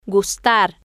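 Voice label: "gustar", Spanish, female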